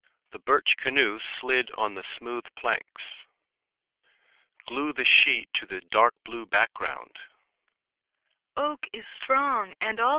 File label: call voip